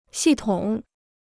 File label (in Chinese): "系统", Chinese